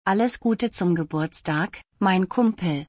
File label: Happy birthday my friend in German; تولدت مبارک به آلمانی